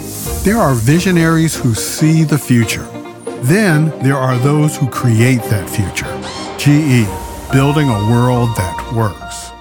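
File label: Commercial; General Electric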